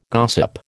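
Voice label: "gossip", American English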